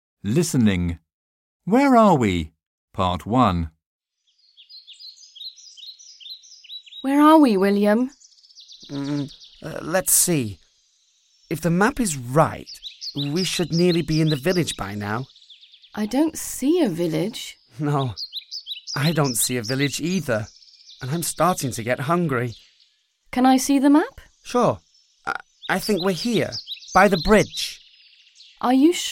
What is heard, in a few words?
eLearning